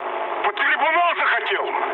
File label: player killed ally, mood low, speech ru; War Thunder